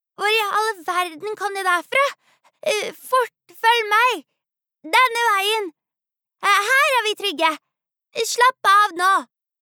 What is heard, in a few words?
Norwegian dub, female